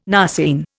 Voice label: "narceine", Indian

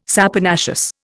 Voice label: "saponaceous", Indian

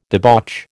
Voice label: "debauch", American

